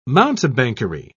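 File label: "mountebankery", British English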